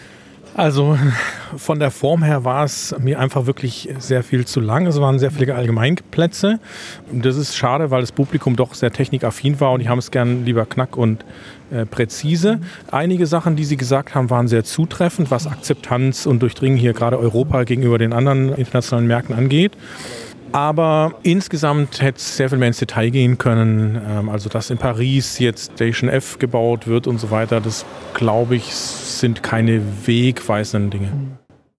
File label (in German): maennerstimme